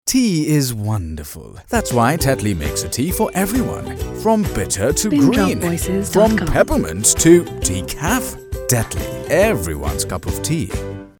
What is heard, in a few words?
English